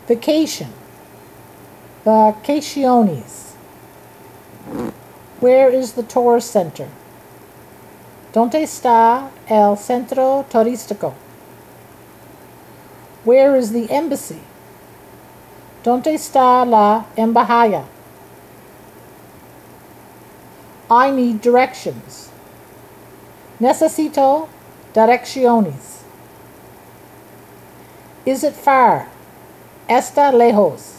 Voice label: Spanish travel phrases